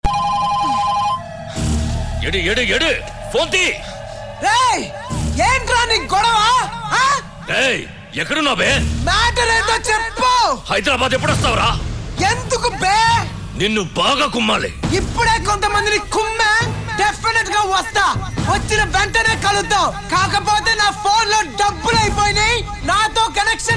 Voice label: Phone Recharge Dialogue, Telugu; Bunny; Desamuduru